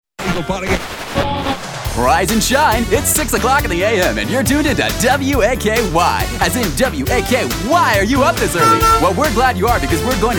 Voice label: Character